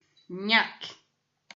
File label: "nhac", portuguese